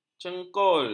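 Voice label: "cengkol", Malay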